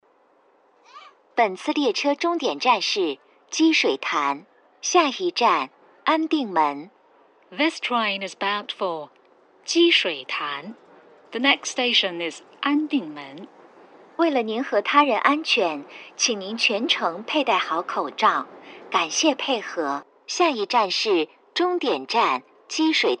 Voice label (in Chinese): 2号线 积水潭终点（换乘19）